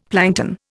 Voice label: "plankton", Indian; Dictionary